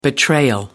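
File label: "betrayal", British English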